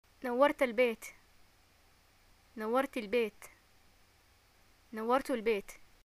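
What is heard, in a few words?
"greeting arrival", Yemeni Arabic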